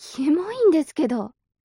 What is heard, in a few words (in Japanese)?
mob女子台詞